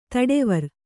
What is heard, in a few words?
"ತಡವರ್", Kannada